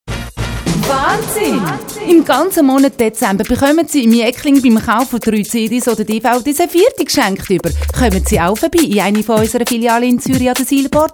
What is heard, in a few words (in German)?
Spot, dialekt; Jecklin 2004